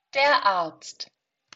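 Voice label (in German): "der Arzt", German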